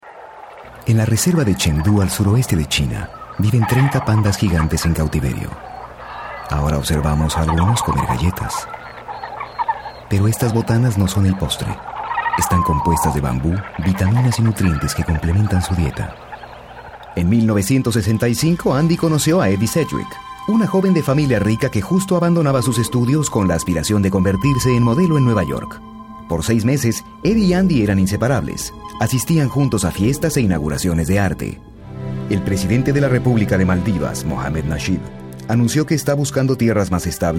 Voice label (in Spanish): narracion